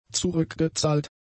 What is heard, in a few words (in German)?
"zurückgezahlt", German; adjectives